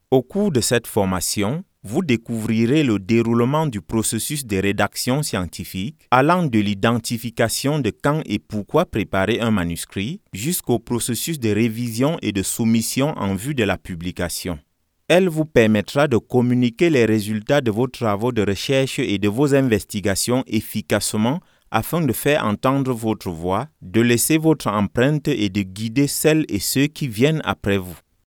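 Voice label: African French, male, E learning Training Videos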